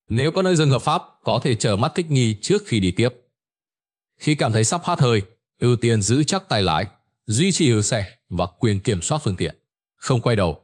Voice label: narration; Vi Sao Anh Nang Co The Khien Ban Hat Hoi; StickerMan